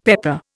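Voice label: "pepper", Indian English; Dictionary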